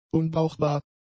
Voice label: "unbrauchbar", German; adjectives

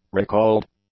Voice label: "recalled", English; adjectives

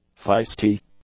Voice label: "feisty", english; adjectives

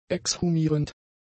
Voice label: "exhumierend", German; adjectives

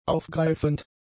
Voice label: "aufgreifend", German; adjectives

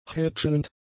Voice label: "hätschelnd", German; adjectives

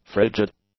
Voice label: "frigid", English; adjectives

